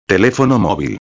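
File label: "telefono movil", Spanish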